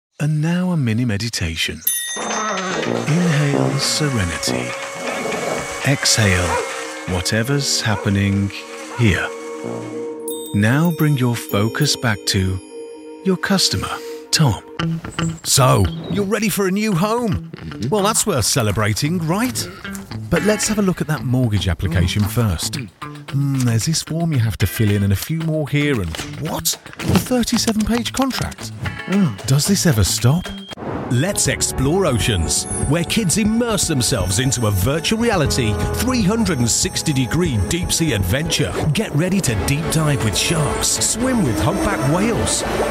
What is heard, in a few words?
commercial